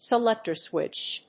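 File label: "selector switch", English; 切り替えスイッチ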